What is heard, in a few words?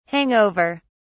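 "hang over", British English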